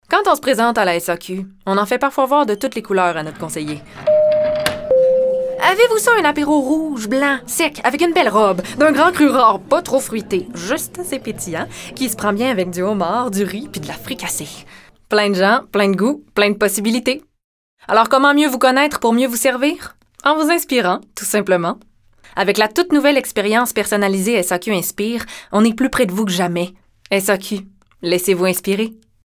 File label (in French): voix femmes; SAQ